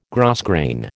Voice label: "grosgrain", American